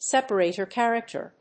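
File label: "separator character", English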